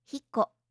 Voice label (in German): "hiko", japanische